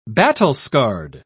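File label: "battle-scarred", British English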